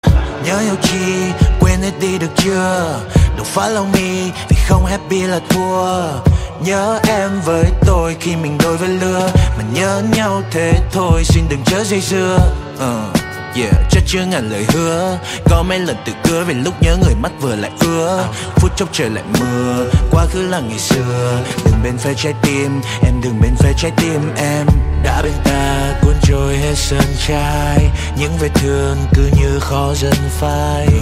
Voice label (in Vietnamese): nhac chuong giong nam